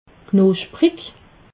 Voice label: "knuschprig", alsace

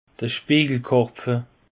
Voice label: "spiejelkarpfe", alsace; peche

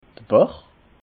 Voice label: "bach", alsace

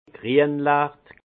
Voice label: "grienlacht", alsace; vin; verdâtre

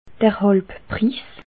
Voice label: "halbpris", alsace

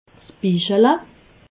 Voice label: "bischele", alsace

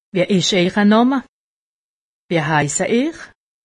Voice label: "namme", alsace; medecine

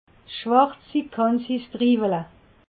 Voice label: "schwarzi", alsace

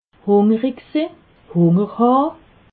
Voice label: "hungerigsinn", alsace

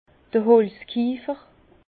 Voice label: "holzkiefer", Bas Rhin; tonnelier à bois

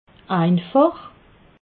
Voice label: "einfach", alsace

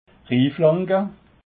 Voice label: "rinflanke", alsace

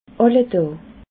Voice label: "alledaa", alsace

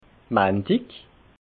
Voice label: "mandig", alsace

